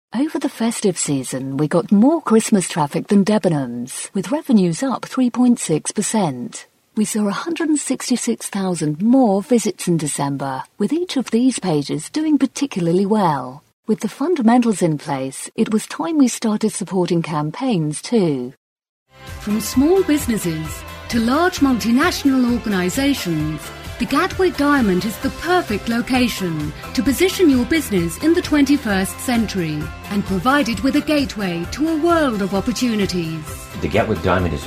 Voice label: Corporate Voice